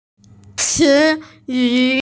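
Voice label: "ci yu", Mandarin Chinese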